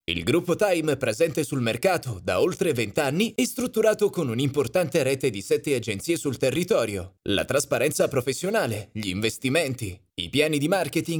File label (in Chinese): 意大利语男, 旅游景点宣传